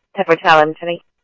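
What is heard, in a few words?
Scottsdale police department, Scottsdale Police, scanner; SCTSDLE PD - PD2; ThompsonPeakHigh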